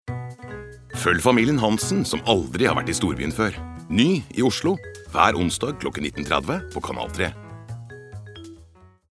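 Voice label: no promo, Norwegian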